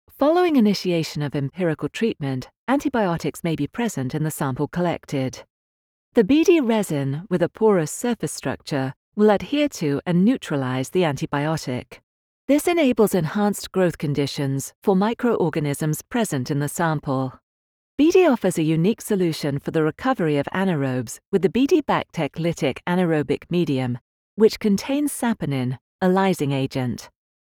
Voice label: medical narration